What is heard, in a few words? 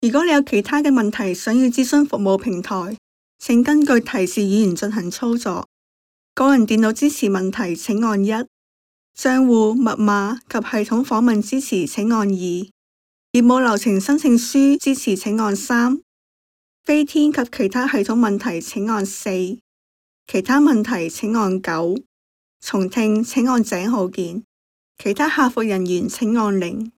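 Chinese IVR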